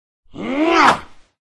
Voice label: elf attack voice mid